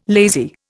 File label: "lazy", Indian